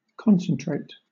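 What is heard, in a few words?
"concentrate", British English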